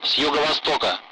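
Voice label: Speech, Russian; Allied Flight; DCS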